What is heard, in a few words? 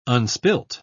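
"unspilt", British English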